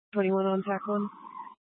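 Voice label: Incident Tac 1, Scanner audio recording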